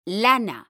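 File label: "lana", Spanish, female; mekanta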